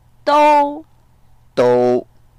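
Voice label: "dou1", Mandarin Chinese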